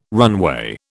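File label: "runway", British English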